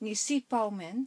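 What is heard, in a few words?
kejia, Chinese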